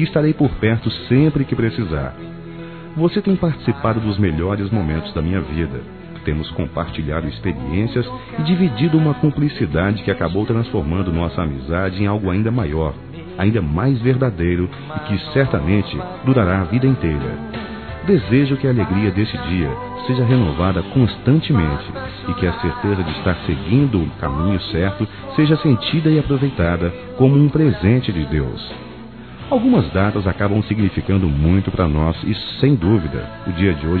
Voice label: Aniversário Compadre Masculino; Aniversários; Aniv Familiar

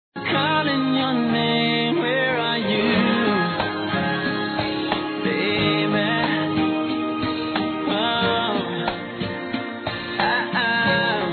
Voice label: Neutro, fem; Telemensagens 2017

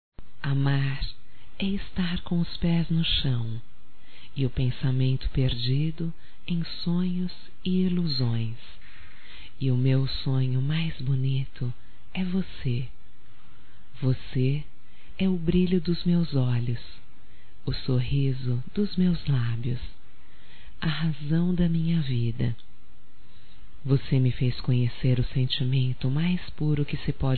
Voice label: ROMÂNTICA - F; Românticas

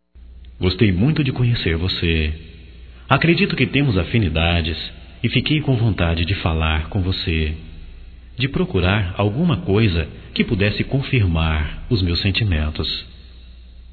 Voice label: CONVITE PARA SAIR, Românticas, masc

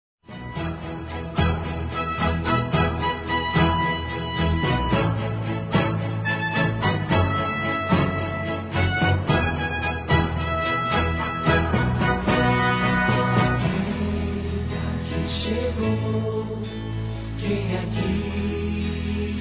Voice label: Aniversário Esposa Masculino; Aniversários; Aniv Familiar

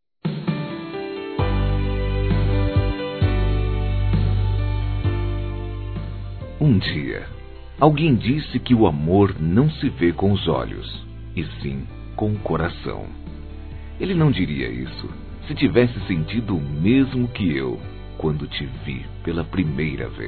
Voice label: Romantica Aniversário Namoro Masculino, Telemensagens

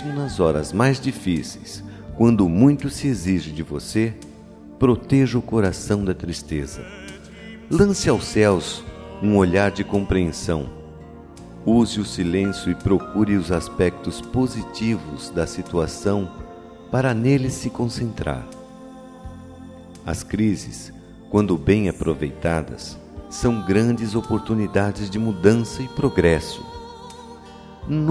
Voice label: A ALEGRIA, Masc; OTIMISMO

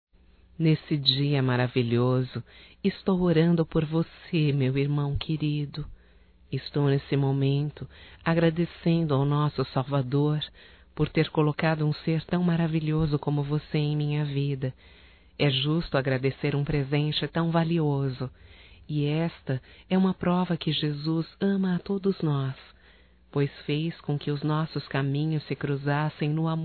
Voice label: Aniversário Evangélico Feminino, Telemensagens; Telemensagens 2017; Aniversarios

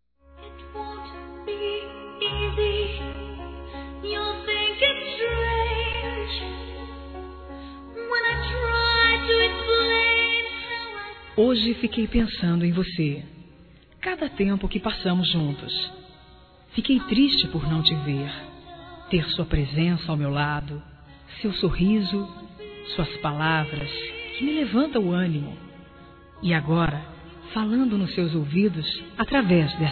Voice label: SAUDADE ROMANTICA

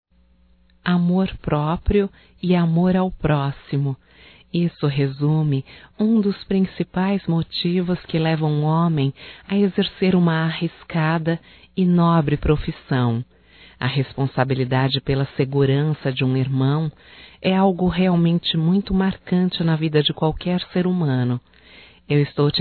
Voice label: HOMENAGEM AO POLICIAL CIVIL (F), Só Voz; Telemensagens; HOMENAGENS

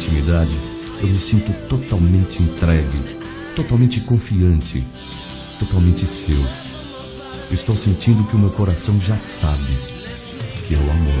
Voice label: Saudades Masculino; Telemensagens

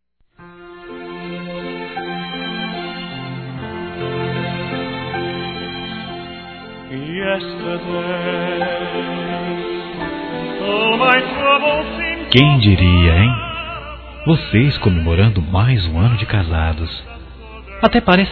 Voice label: Aniversário Casamento Geral Masculino, Telemensagens; Telemensagens 2017; Aniversários

